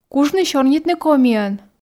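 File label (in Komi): кужны сёрнитны комиӧн, Komi, female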